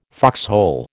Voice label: "foxhole", American